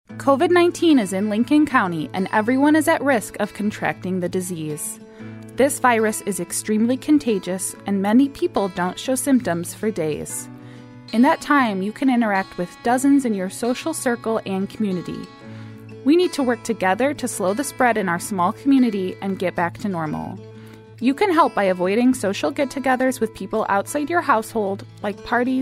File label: English Spanish PSA